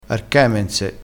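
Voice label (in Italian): "archemense", dialettale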